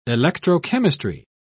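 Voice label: "electrochemistry", British English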